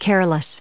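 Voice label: "careless", US English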